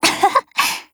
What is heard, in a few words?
MOOD JP